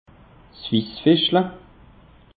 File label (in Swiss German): "wissfischel", alsace; peche